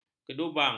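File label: "kedubang", Malay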